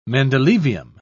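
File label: "mendelevium", British English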